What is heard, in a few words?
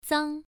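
"zang1", Chinese pronunciation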